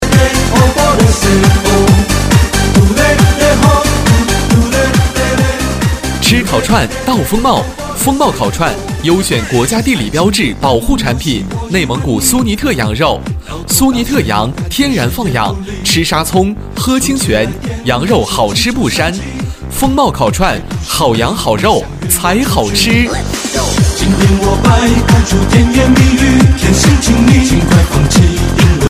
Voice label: 烤串食品 男 亲切激情; 促销; 丰茂

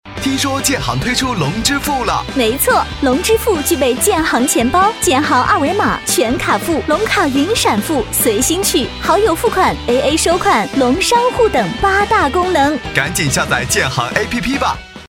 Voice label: 电台包装 广告 男 女 激情; 广告建行龙支付男2女50

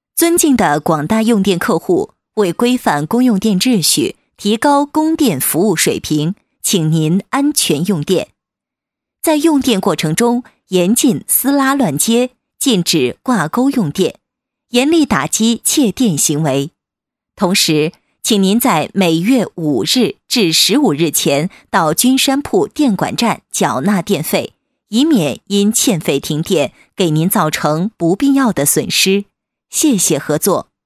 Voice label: 尊敬的广大用电客户, 女, 成熟; 通知

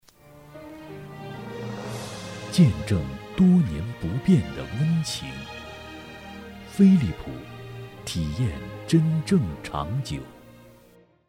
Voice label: 广告 男; 电力; 飞利浦电力

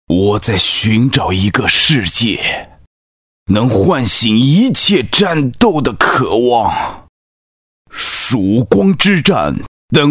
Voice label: 游戏角色录音男; 曙光

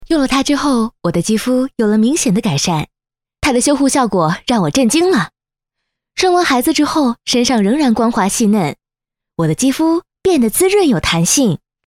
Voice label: 品质广告 肌肤化妆品女 亲切

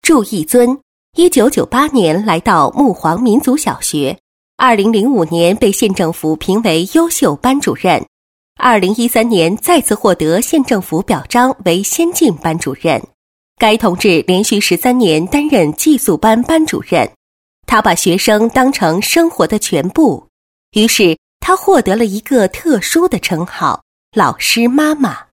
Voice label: 专题 女 稳重; 老师妈妈专题

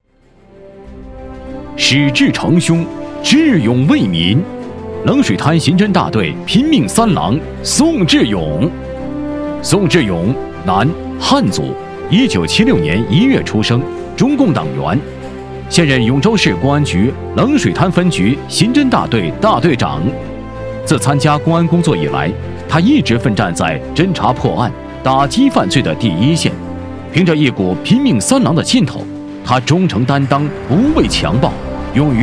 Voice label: 人物样音男9-大气激情; 人物专题

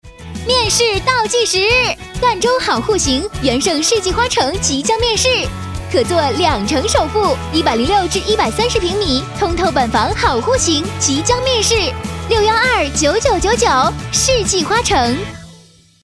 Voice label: 广告 世纪花城女 欢快

